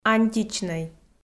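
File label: "античнӧй", Komi-Zyrian, female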